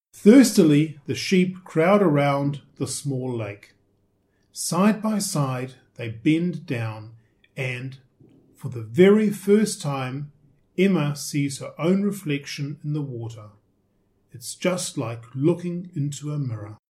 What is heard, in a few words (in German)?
englisch